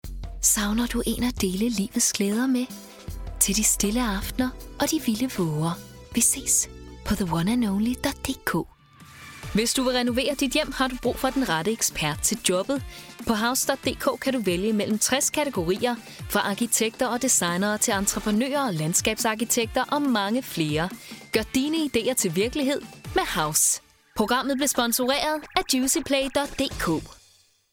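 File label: Danish, Commercial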